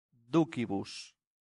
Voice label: "ducibus", latin; santillana